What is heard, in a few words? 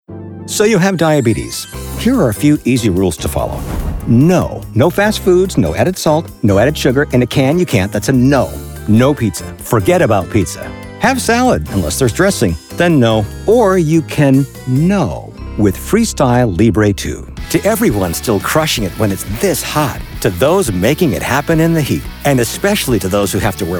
Commercial Demo, Voice Over